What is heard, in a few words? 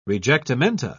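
"rejectamenta", British English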